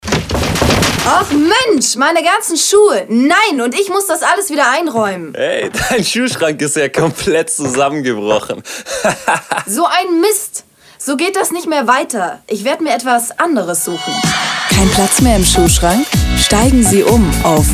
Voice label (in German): spot